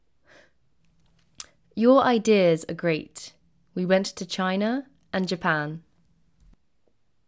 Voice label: British Ideas China, pronunciation